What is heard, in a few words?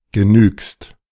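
"genügst", German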